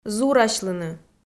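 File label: "зурасьлыны", Komi-Zyrian, female; audio dict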